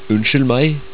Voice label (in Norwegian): "unnskyld" Norwegian